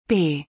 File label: The letter B in German